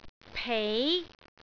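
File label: "pei2", Cantonese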